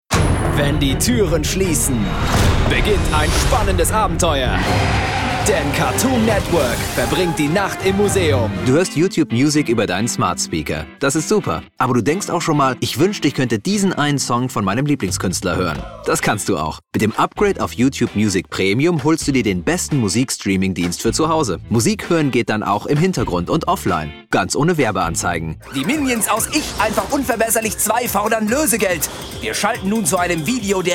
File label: German Commercial Voicereel